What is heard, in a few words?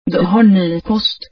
Mail Swedish